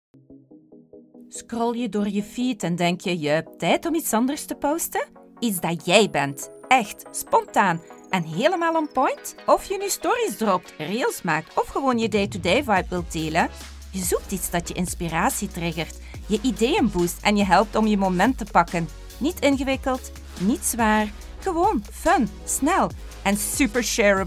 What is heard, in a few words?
flemish commercial, dynamic